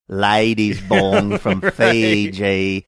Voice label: saying lady's bong from fiji funny australian